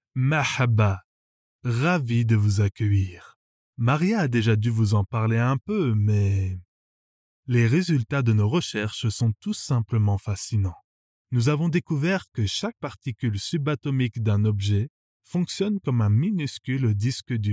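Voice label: French, France, male, voice over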